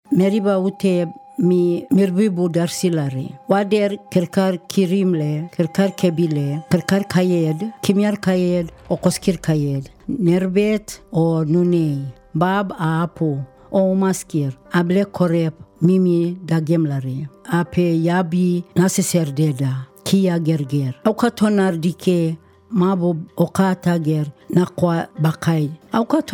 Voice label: Meriam Mer, radio; Carer Gateway